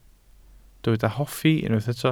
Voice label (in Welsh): "hoffi", Welsh